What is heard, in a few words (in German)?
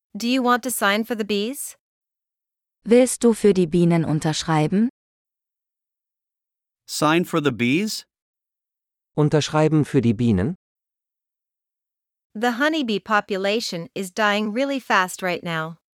Dialog mit Uebersetzungen; Artenvielfalt; nachhaltigkeit-klimawandel